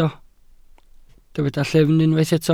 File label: "llyfn", Welsh